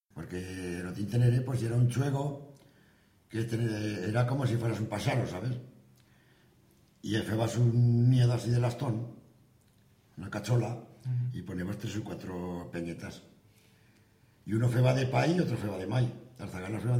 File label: aragones altoaragon, spanish accents; Aragonese; Panzano; Labata